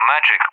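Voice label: "Magic", English, UK; CallSign; DCS; Allied Flight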